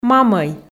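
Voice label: "мамӧй", Komi, female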